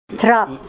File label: ladder, Ninilchik Russian; n. ladder, mopstick